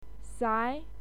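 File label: "sai2", Mandarin Chinese pinyin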